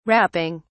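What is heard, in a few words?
"rapping", British English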